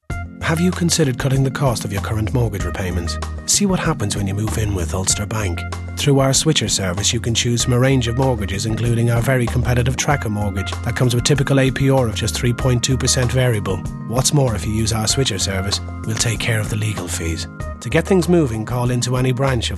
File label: Ulster